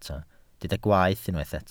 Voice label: "gwaeth", Welsh

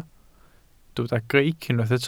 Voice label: "greic", Welsh

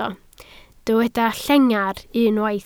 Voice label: "llengar", Welsh

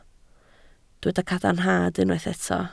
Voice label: "cadarnhad" Welsh

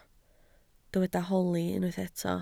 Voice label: "holi", Welsh

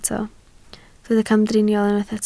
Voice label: "camdriniol", Welsh

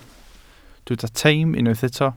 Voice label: "teim" Welsh

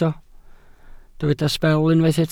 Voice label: "sbel" Welsh

